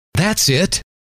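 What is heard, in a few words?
that S It, male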